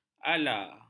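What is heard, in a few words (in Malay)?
"ala", Malay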